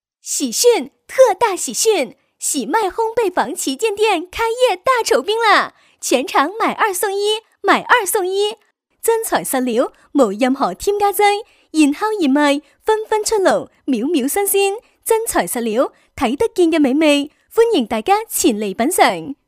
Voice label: 粤 女 促销; 中粤-喜麦烘焙坊旗舰店

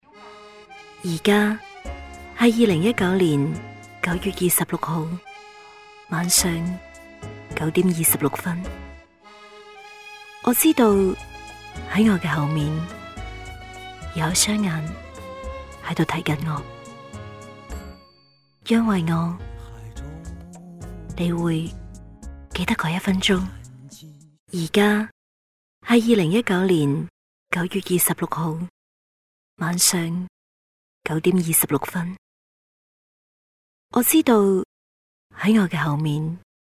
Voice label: 女 旁白; 王家卫风格

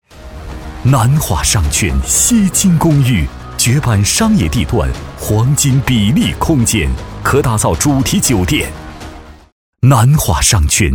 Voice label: 男; 地产

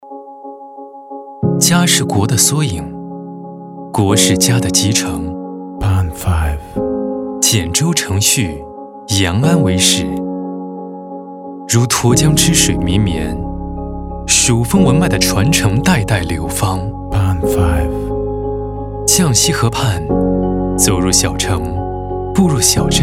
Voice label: 男 宣传片; 家风有传承

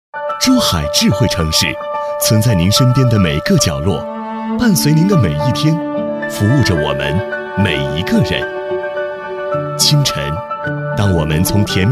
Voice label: 男 宣传; 珠海

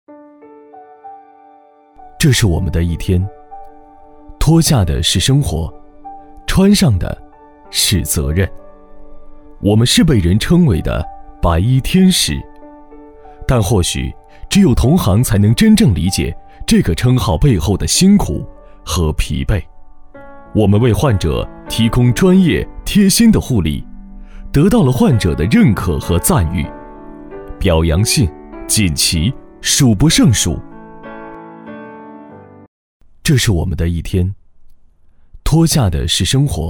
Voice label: 男 旁白; 这是我们的一天

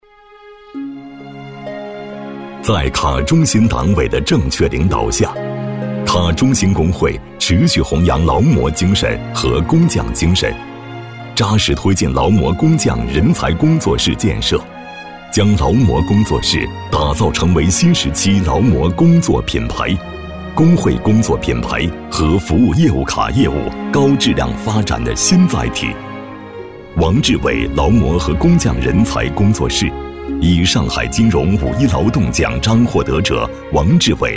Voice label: 男 专题片; 劳模工作室

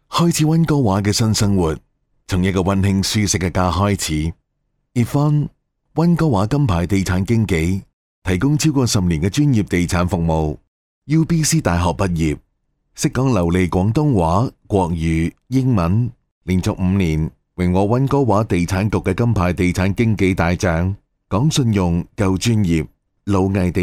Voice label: 男 地产, 粤男; 鲁艺地产

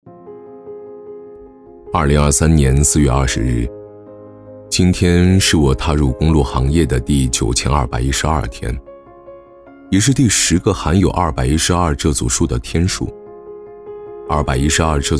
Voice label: 男 宣传片讲述; 段长的一天

